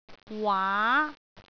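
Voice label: "waa2", Cantonese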